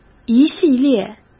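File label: "一系列", Mandarin Chinese; hsk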